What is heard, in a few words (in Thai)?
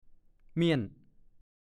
"เมิ้ด", ภาษาโคราช; หมด